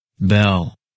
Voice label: "Bell", last names